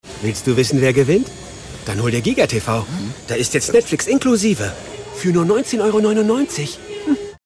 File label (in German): WERBUNG Synchron; Vodafone